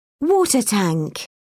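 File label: "water tank", British English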